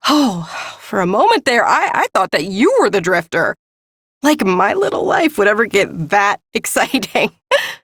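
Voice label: Ambient Female Brisk, Dialog; Duviri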